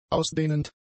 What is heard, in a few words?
"ausdehnend", German; adjectives